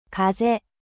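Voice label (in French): "vent", French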